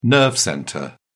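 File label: "nerve centre", British English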